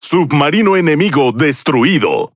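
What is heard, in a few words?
Spanish speech destroy enemy sub; World Of Warships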